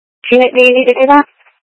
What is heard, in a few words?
Mutual Aid-Intersystem Interop B, scanner